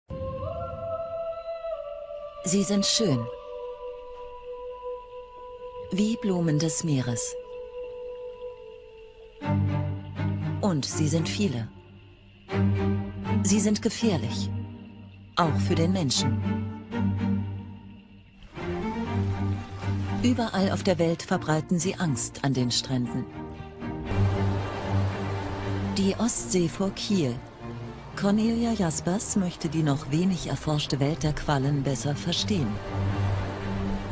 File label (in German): tv beitrag; invasion der quallen